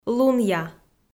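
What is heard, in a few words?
"лунъя" Komi, female; kpv audio dict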